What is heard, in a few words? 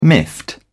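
"miffed", British English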